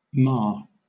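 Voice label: "mar", British English; pronunciations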